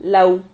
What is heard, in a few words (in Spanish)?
"lau", Silabas